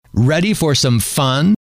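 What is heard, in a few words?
ready for some fun, male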